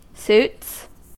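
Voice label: "suits", US English; pronunciations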